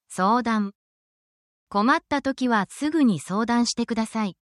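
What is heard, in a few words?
Japanese word, essential Japanese phrases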